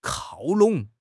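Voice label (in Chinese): "靠拢", Chinese; HSK6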